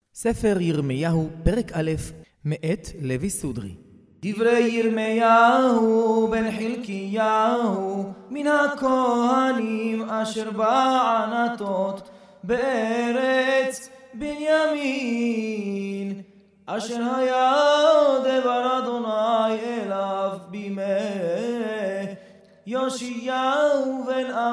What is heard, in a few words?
recorded tanach yirmeyahu morrocan